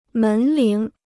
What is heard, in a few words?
"门铃", Chinese; mén líng; doorbell